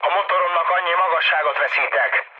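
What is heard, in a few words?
Hungarian damaged engine mood low, speech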